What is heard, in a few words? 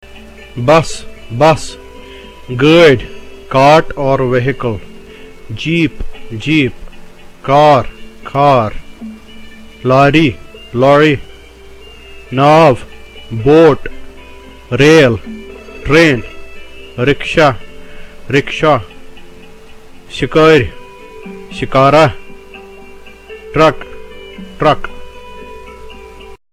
vehicles, Spoken Kashmiri